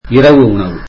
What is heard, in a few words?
tamil dinner